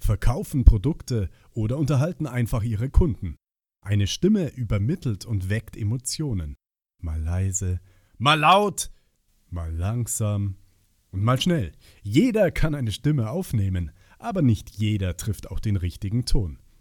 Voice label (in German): voice over